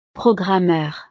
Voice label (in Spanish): "programmeur", frances; profesiones